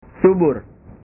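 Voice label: "subur", Indonesian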